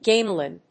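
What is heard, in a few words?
"gamelan", English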